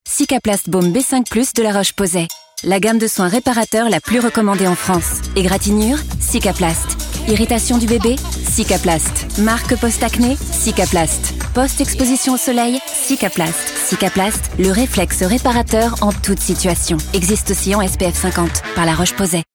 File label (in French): PUB FR; LRP Cicaplast